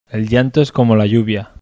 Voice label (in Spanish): el llanto es como la lluvia, bizkaia masculino